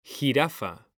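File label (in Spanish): "JIRAFA", male; mekanta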